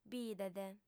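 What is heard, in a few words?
"bidet", female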